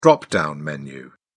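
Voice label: "drop-down menu", British English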